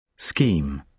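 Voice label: "scheme", British English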